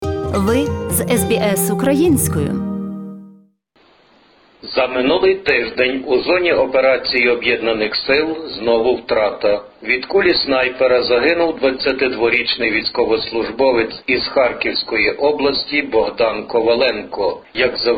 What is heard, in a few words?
ukrainian; ВІЙНА, ЗАТОПЛЕННЯ, КОРОНАВІРУС І ...ОГИДНА ПОЛІТИКА; SBS Ukrainian